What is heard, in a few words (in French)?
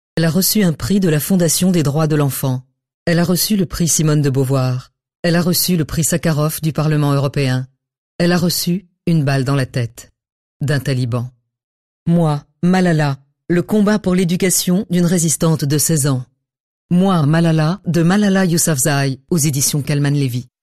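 spot, spots radio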